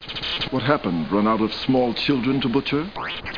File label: STATIC; Babylon 5; G'Kar